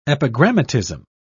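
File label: "epigrammatism", British English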